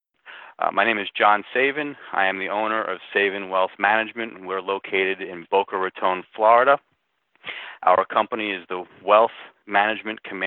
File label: Testimonial; Impact Makers Radio